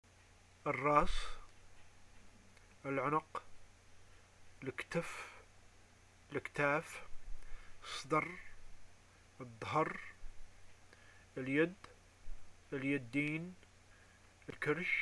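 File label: body parts, arabic, Algerian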